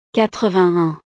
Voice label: "quatre-vingt-un", frances

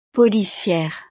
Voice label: "policiere", frances; profesiones

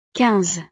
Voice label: "quinze", frances

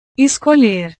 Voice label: "escolher", Portugués; escoger

